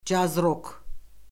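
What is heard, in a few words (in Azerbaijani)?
"caz-rok", Azerbaijani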